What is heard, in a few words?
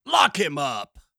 Lock him up male